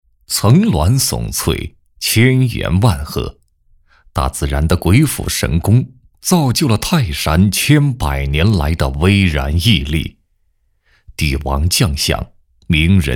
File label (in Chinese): 男, 文化宣传 太极文化宣传配音; 开心太极